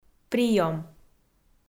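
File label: "приём", Komi, female; kpv audio dict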